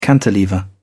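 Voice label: "cantilever", British English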